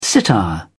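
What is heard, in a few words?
"sitar", British English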